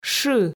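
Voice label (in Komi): "шы", Komi, female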